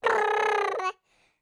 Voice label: babble, birthday